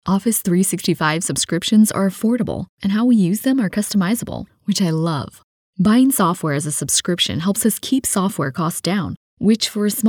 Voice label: english us and canada female corporate